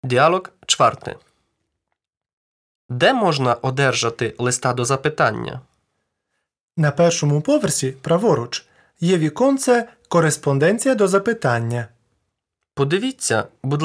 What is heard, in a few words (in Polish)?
Lekcja Dialog